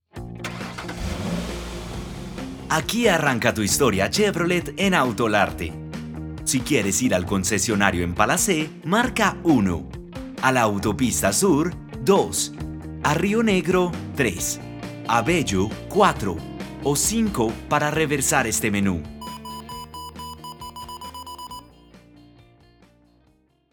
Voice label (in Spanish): BIENVENIDA TELEFONICA